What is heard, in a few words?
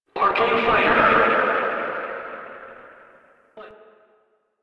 Arcade Voices; Ambience; Props; Warframe; NineteenNinetyNine